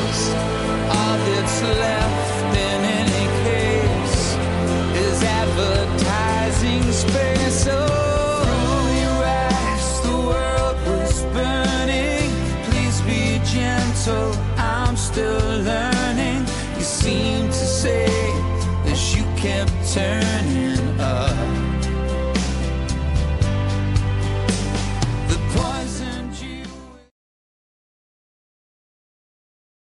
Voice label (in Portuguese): romantic distante natal fem, natal romantica voz feminina